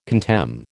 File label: "contemn", American